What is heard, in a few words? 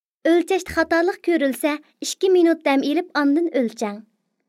girl